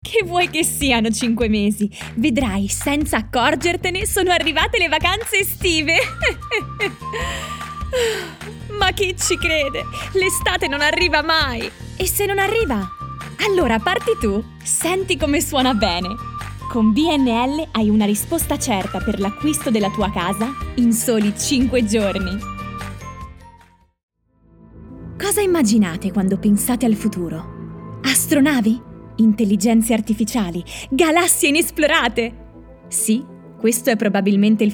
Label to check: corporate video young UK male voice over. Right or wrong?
Wrong